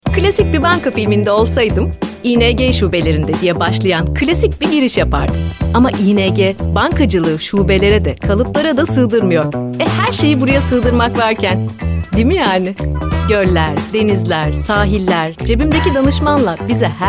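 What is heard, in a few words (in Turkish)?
reklam neşeli enerjik konuşma tarzında günlük; ing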